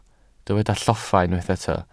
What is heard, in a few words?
"lloffa", Welsh